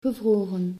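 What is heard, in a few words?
"gevroren", Dutch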